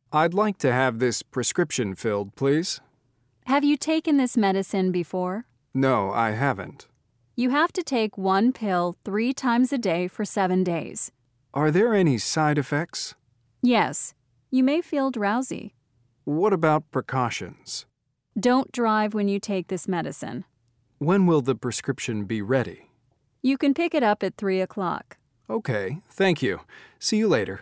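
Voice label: Going to the Pharmacy Dialogue Reading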